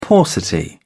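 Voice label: "paucity", British English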